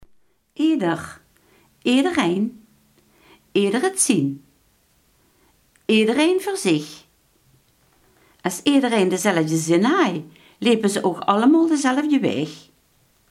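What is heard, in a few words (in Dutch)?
iedereen, Limburgse gesproken woordenboeken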